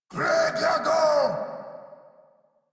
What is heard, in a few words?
Deploy Drone, en; Dialog; Barks; Battle; Warframe; Eidolon; Grineer